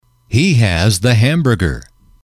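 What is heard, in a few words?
he has the hamburger, male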